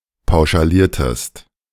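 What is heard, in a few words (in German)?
"pauschaliertest", German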